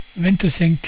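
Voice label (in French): "vingt cinq", French; cat numeration doigts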